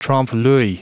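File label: "trompe", English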